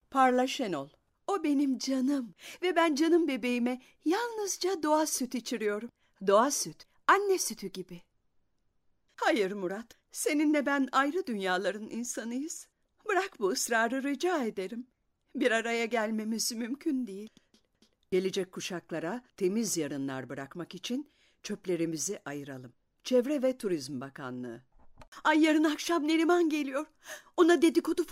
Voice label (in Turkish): turkce kadin